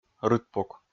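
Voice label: "рытпук", Komi, male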